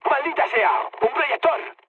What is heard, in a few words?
searchlite, mood low, speech; War Thunder